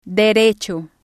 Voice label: "derecho", spanish